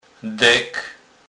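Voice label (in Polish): "dek", Kurpie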